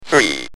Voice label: "3", UK Speech